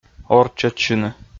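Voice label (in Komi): "орччӧдчыны", Komi, male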